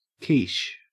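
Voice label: "quiche", Australian English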